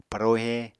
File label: "parohe", Apatani